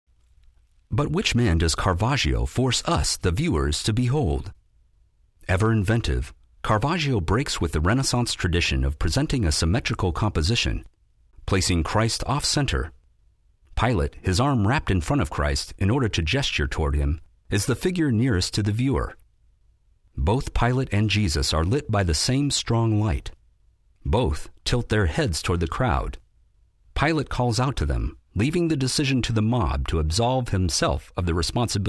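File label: narration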